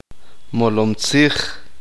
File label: uspanteko; mentir